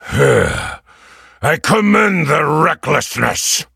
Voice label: defeat, en; Cookie Run